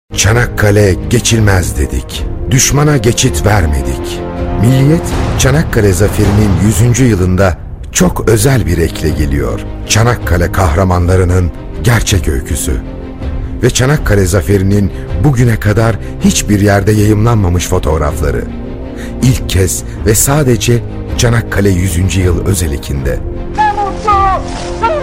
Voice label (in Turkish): reklam; milliyet